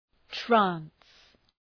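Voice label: "trance", English; {træns}; (Ουσιαστικό)● έκσταση● ύπνωση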